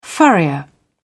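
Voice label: "furrier", British English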